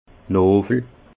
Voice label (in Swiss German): "nowel", Alsace; vin